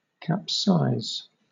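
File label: "capsize" English, British accent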